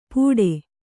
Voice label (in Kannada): "ಪುಡೆ", Kannada